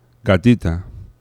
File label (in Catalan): "gatita", oclusives; laboratori fonetica